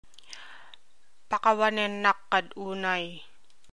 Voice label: Pakawanen nak ka unay, Ilocano